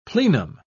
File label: "plenum", pronounce